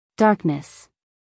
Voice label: "darkness", US English, female